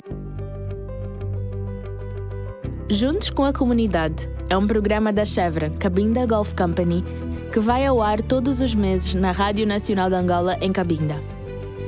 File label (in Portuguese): CORPORATIVA PT